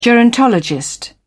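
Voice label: "gerontologist", British English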